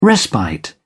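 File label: "respite", British English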